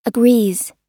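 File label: "agrees", US English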